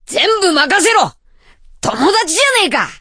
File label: voice, dubbing